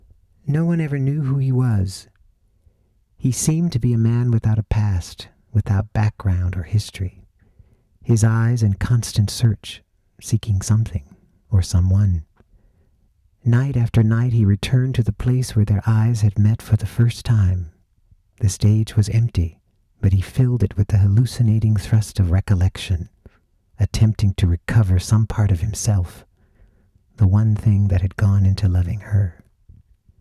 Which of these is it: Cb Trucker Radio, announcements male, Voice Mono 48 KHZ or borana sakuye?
Voice Mono 48 KHZ